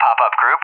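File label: "pop-up group", English speech; AWACS Messages; DCS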